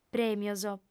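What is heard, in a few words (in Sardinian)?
"prèmios", female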